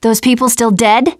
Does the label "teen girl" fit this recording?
Yes